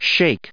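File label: "shake", US English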